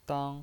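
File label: "dang", Mandarin Chinese pinyin voice, first tone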